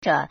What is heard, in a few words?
"zhe", Chinese